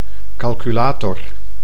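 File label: "calculator", Dutch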